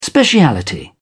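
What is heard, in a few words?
"speciality", British English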